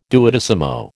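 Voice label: "duodecimo", American English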